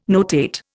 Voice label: "notate", Indian

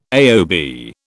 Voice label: "AOB", British

